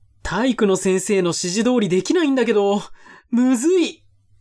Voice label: man